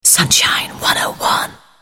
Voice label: whisper; Sunshine 101